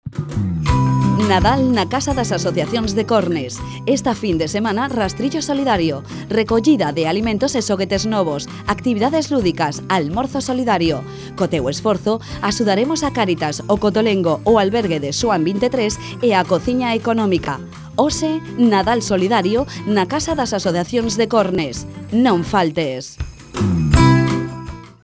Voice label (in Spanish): comercial gallego